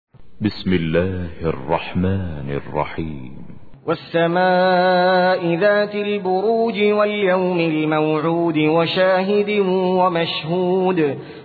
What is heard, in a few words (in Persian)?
ترتیل; سوره بروج